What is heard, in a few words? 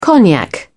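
"cognac", British English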